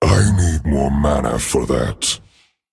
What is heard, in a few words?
Male Dragon, ERROR NEED MANA; Not enough mana; 风暴巨龙迦拉克隆（英雄）